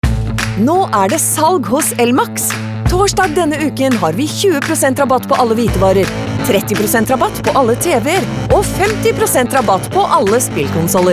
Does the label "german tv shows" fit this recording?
No